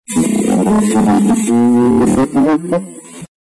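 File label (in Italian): "volere", Italian; volere e Spirito Santo va di lì giù!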